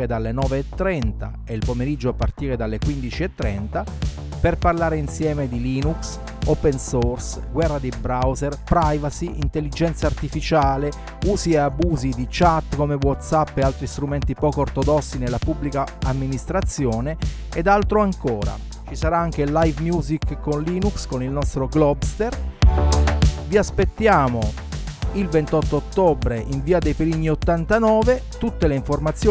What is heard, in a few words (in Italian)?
radiolinuxday spot, spot radio